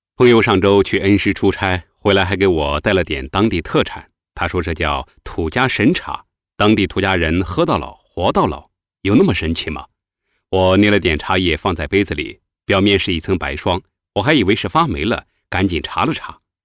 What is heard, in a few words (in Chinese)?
抖音广告样音